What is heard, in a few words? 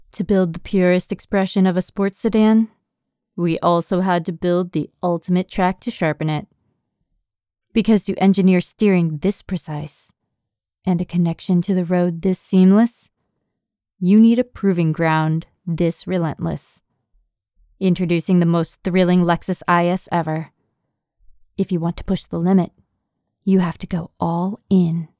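Commercial Driven Epic, Female; American English voice-over artist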